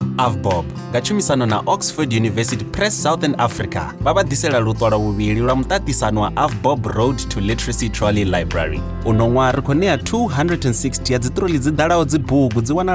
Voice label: Venda Conversational